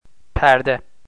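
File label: "perde", Turkish, male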